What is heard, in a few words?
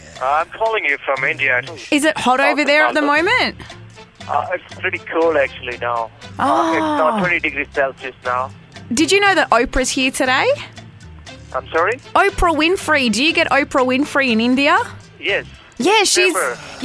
funny dude trying to sell printer cartridges